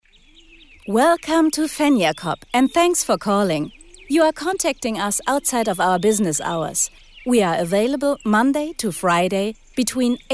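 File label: Mailbox, English; LOFT VOICES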